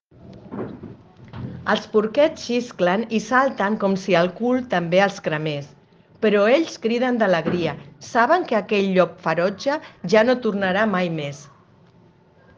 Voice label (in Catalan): Audio catala